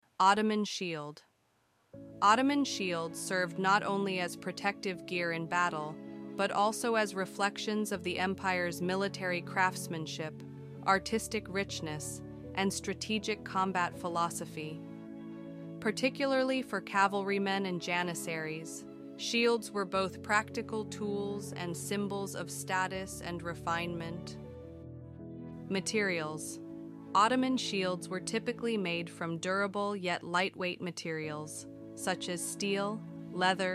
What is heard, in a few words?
eng music; Ottoman Shield; art collection